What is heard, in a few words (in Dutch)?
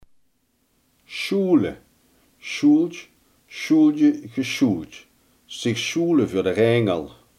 "schuilen", Limburgs